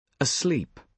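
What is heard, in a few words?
"asleep", British English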